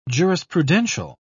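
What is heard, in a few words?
"jurisprudential", British English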